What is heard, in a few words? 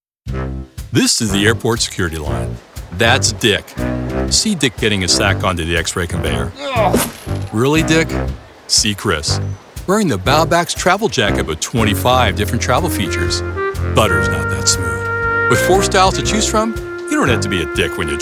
Voice Actor Commercial